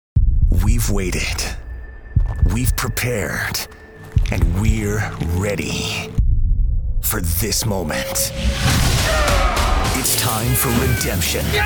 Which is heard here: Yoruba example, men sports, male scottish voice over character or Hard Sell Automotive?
men sports